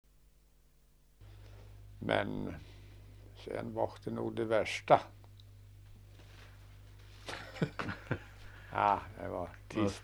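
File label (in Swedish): Bjuråker Hälsingland, Svenska sagor, dialekter; Folkminnen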